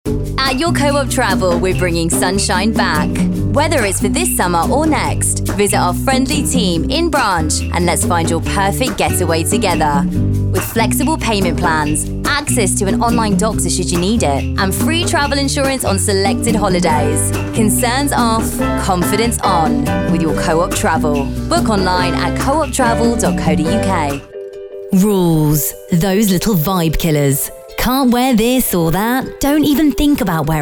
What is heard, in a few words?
commercials